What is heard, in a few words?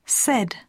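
"said", English; poitiers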